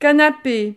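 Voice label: "canape", French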